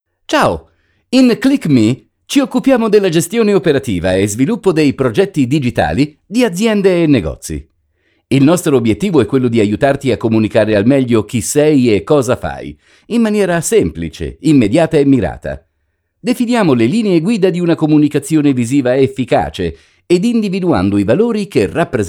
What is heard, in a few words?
Italian Male